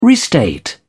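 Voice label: "restate", British English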